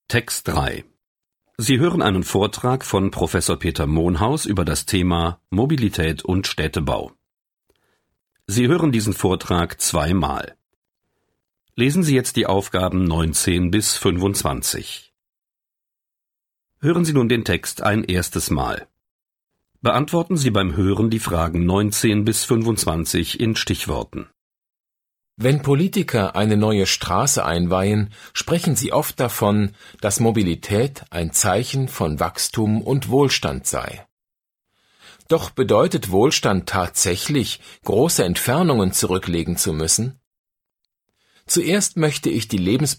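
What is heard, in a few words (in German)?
Sample für SprecherInnen; testdaf; Lehrkraefte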